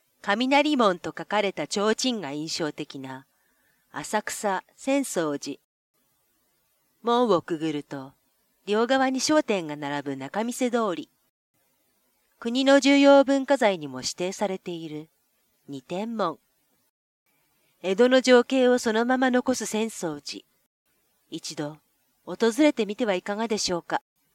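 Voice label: sample narration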